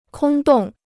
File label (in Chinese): "空洞", Chinese